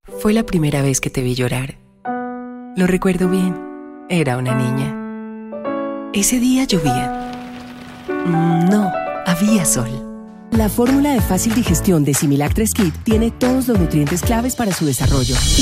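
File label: female, Latin American Spanish, commercial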